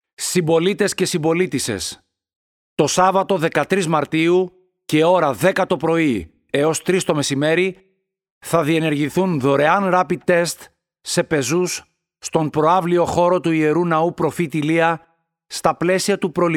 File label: Announcement; Profitis Ilias